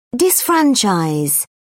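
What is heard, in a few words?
"disfranchise", British English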